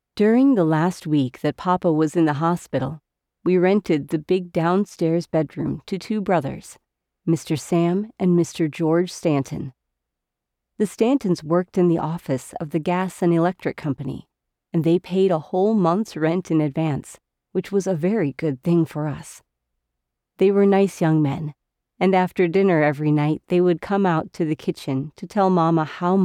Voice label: Education Middleschool Education